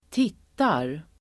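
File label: "tittar", Swedish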